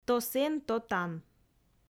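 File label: "то сэн, то тан", Komi, female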